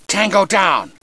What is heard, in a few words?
MALE ENEMY DOWN; combatarms2